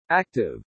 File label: ACTIVE, ENGLISH PRONUNCIATION; ACTIVO